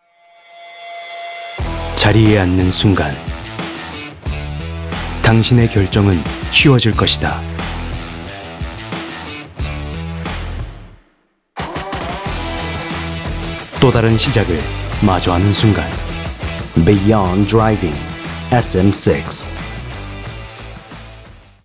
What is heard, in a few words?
Commercial